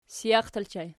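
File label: sijaq ergative plural, Archi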